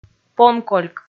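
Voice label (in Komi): "понкольк", Komi, female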